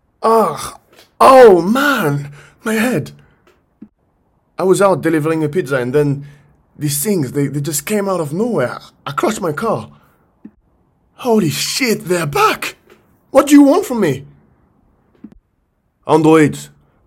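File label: French Characters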